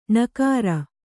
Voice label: "ಣಕಾರ", Kannada